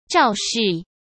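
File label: "肇事", Mandarin Chinese; hsk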